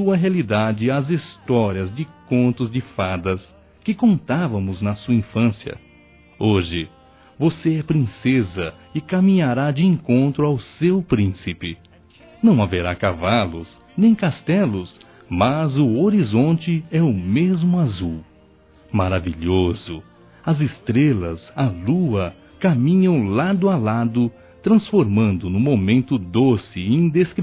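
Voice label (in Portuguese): Parabéns Pai P Filha CASAR, masculino; Telemensagens; Telemensagens 2017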